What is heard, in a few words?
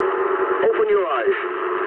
eng player friendly fire mood low, speech eng